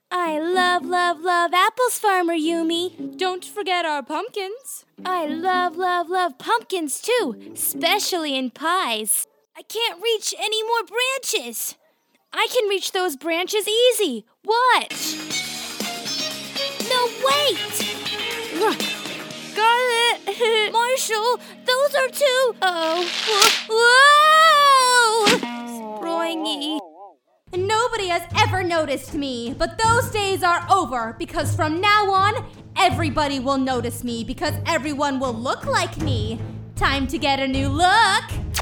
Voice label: Animation